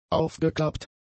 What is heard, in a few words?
"aufgeklappt", German; adjectives